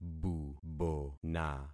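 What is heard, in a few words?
"bubona", concatenated syllables; Affixing experiment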